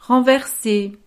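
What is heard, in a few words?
"renverse", French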